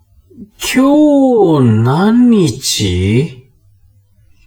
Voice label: man